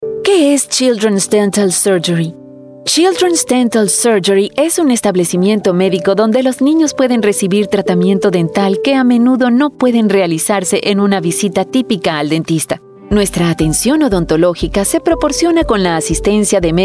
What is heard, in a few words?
spanish voice over female narrator